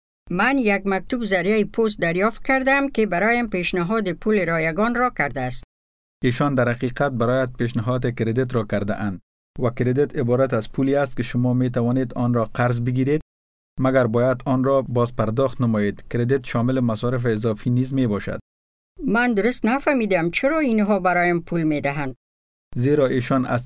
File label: credit dari